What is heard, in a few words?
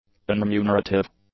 "unremunerative", english; adjectives